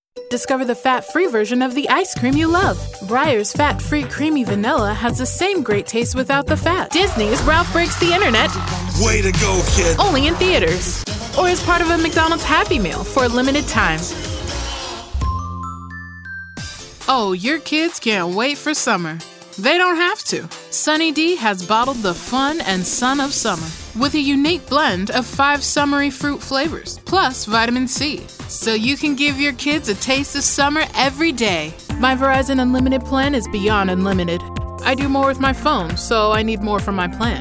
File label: VoiceOver Reel